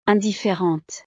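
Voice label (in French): "indifferente", French; estados animo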